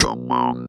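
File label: "come on", Funky Vocals; USB Soundscan vol.18; AKAI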